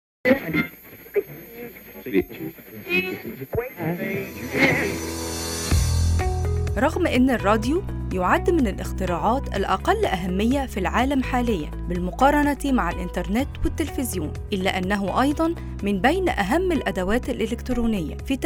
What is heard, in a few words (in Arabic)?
اخباري